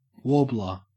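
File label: "warbler", Australian English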